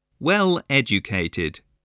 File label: "well-educated", spelling